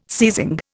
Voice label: "seizing", Indian

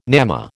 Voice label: "gnamma", American

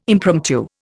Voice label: "impromptu", Indian

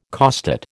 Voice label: "costate", American

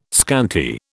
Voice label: "scanty", British

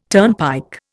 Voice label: "turnpike", Indian

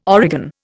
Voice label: "origan", Indian

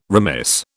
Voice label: "remiss", British

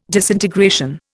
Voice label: "disintegration", Indian

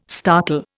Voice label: "startle", Indian

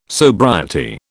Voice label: "sobriety", British

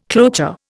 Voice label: "cloture", Indian

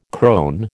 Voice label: "crone", American

